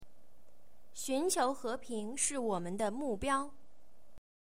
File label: Mandarin Chinese